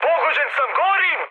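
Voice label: Serbian on fire mood med, speech; War Thunder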